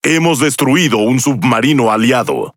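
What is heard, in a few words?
Spanish Speech; Destroy Ally Sub; World Of Warships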